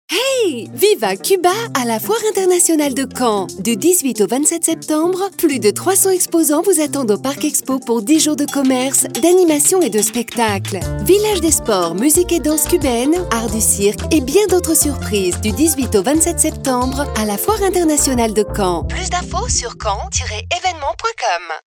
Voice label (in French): SPOT RADIO